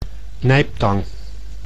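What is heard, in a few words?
"nijptang", Dutch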